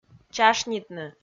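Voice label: "чашнитны", Komi, female; kpv audio dict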